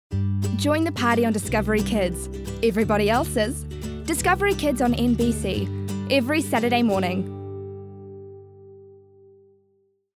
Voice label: Kiwi Promo, en-US

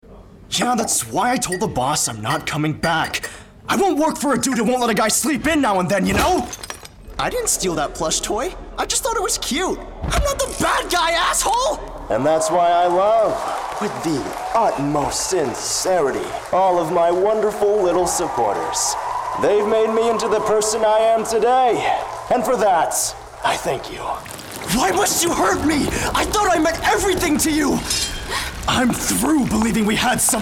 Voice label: Voice Actor; BigMouth Voices

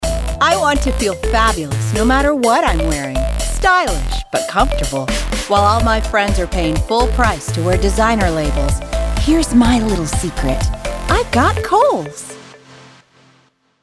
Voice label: Classy Girlfriend Stylish

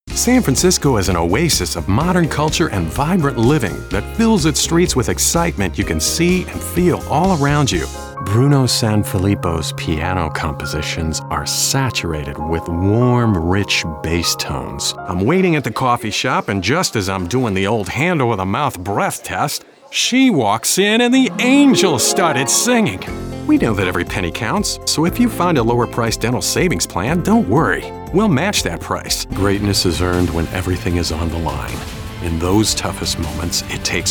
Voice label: Commercial

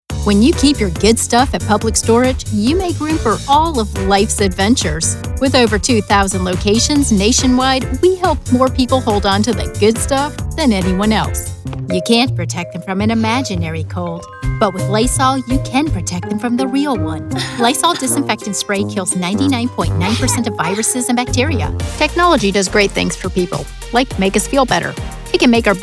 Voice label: Commercial

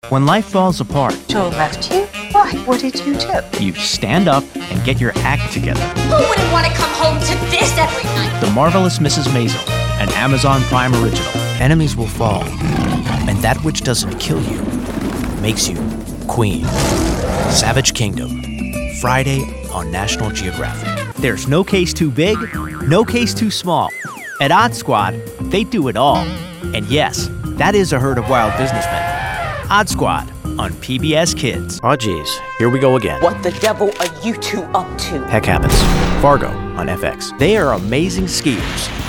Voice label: Promo

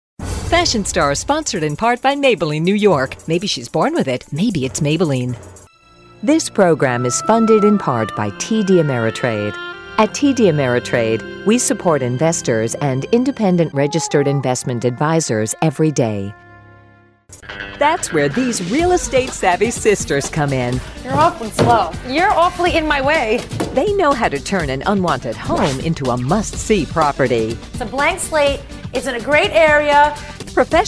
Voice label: American-English Promo

